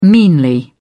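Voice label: "meanly", British English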